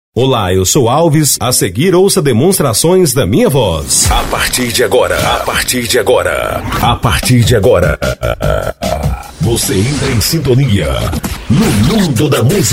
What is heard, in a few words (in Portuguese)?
locutor